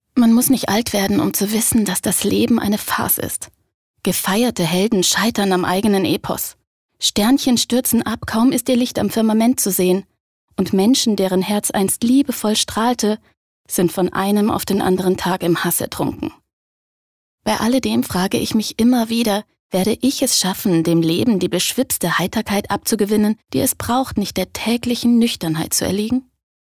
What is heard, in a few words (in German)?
Weltschmerz Poetisch